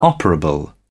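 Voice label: "operable", British English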